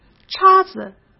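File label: "叉子", Mandarin Chinese; chinese-flashcards; hsk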